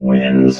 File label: "wins", robot